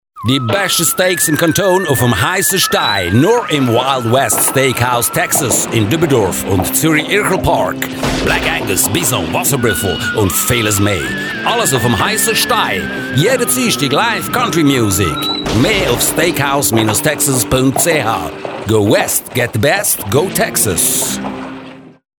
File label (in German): Werbung; Steakhouse Texas